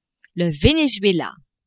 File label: "Venezuela", French